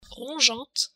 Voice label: rongeante, french

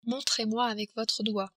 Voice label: montrez moi, french

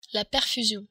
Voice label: "perfusion", french

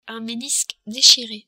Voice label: "menisque", french

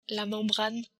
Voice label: membrane, french

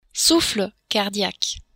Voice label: "souffle", French

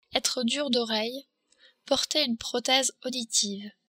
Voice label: "oreille", french

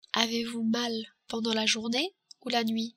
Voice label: mal pendant, french

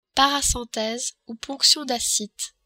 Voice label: "paracentese", french

